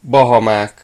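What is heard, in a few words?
"bahamas", Hungarian; gcompris; geography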